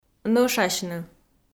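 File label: "нӧшасьны", Komi, female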